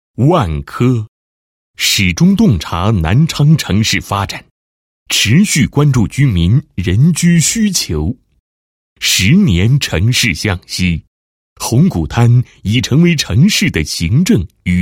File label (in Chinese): 男 房地产专题 宣传介绍; 万科金域